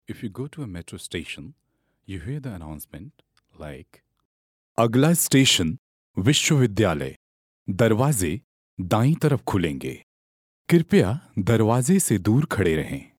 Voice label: Hindi Male